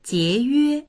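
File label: "jie2yue1", Chinese; jiéyuē; 节约; 節約（する）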